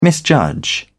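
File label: "misjudge", British English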